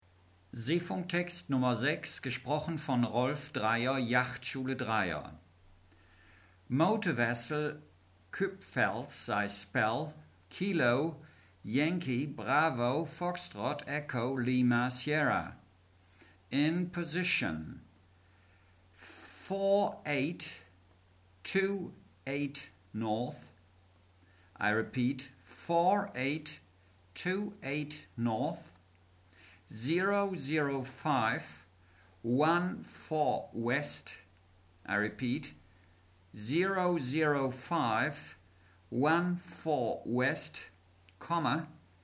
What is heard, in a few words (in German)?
Seefunktext